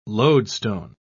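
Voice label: "loadstone", British English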